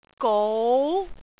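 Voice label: "gou2", Cantonese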